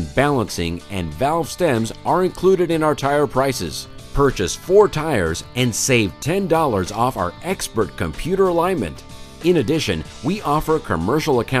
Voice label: Auto Service and Repair On Hold Message